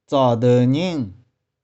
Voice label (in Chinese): "tsau2deu1ning6", 玉環